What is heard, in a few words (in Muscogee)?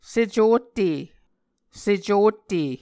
"secotte", Muscogee